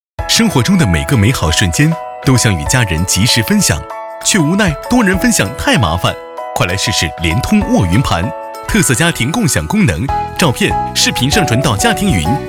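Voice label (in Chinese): 男国大音-【MG】联通沃云盘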